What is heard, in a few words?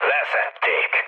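Hungarian aircraft destroyed mood low, speech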